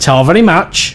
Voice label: Thanks, male; svencoop

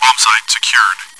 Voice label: chitchat; svencoop

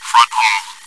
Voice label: chitchat, bot, voice commands; svencoop

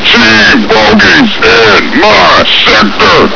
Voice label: backup, voice commands, grunt; svencoop

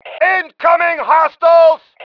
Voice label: incoming enemy, male

